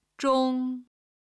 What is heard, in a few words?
"zhong1", Chinese